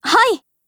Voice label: AGREE JP